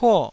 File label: "puo4", taiwanese